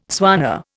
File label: "Tswana", Indian